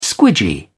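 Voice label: "squidgy", British English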